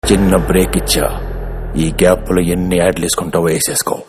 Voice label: Dialogue Ringtone; Raviteja